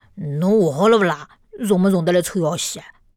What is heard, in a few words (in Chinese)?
胖小孩; 配音